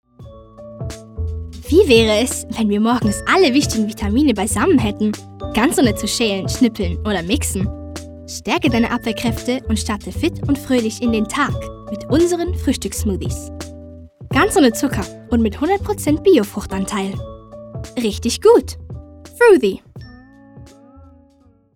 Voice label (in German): Radiowerbung; Froothie